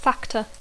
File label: "factor", English